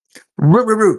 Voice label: voice message